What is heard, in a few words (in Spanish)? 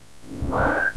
Voice Acting es M